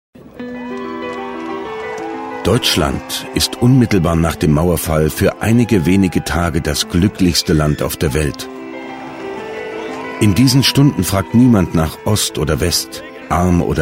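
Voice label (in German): Doku, Sprecher; Deutschland; warme, ausrucksstarke Stimme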